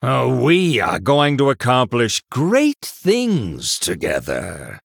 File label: Male Human Bartender Picked